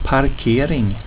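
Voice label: "parkering", swedish; word of day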